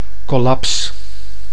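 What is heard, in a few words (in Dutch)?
"collaps", Dutch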